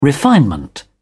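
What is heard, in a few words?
"refinement", English, uk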